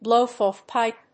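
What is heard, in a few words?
"blowoff-pipe", English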